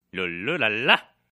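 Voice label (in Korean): "wololo", Korean; taunt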